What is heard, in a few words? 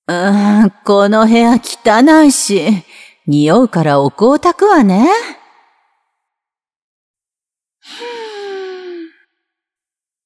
Non BGM, ASMR